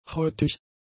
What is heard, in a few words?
"heutig", German; adjectives